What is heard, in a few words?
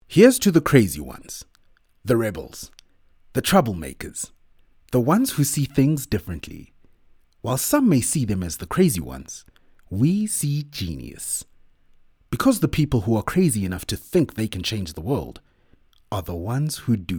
English Conversational; OSM Talent